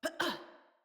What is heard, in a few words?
Roll Female, Battle Barks; Envoy